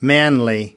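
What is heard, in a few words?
"manly", us, male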